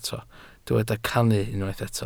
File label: "cannu", Welsh